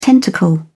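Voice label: "tentacle", British English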